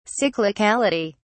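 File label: "cyclicality", British English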